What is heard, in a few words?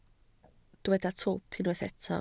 "twp", Welsh